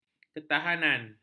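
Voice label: "ketahanan", Malay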